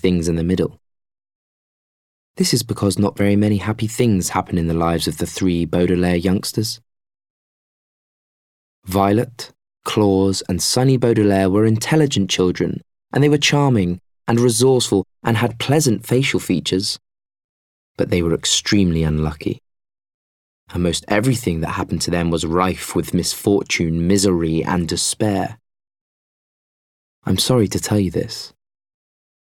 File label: Audio Book